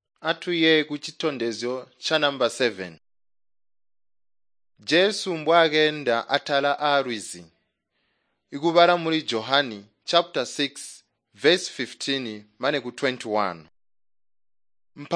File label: tonga plateau, drama; chitonga bsz nt drama